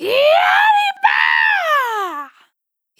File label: "Cabaret" Dry, Vocal Samples, Words; Sonic Foundry (Sony Creative Software) - Pocket Diva World Class Vocal Samples